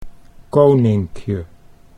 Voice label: "koninkje", Dutch

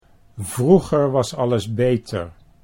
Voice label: "vroeger was alles beter", Dutch

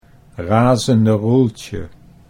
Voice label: "razende Roeltje", Dutch

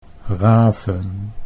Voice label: "raven", Dutch

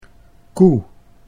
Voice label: "koe", Dutch

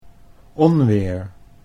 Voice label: "onweer", Dutch

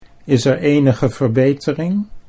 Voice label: "is er enige verbetering", Dutch

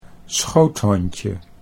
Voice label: "schoothondje", Dutch

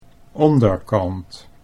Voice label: "onderkant", Dutch

